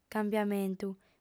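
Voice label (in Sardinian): "cambiamentu", female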